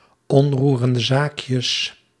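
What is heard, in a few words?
"onroerende zaakjes", Dutch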